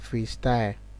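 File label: "freestyle", Nigerian Pidgin, male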